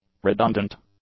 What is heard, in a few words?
"redundant", English; adjectives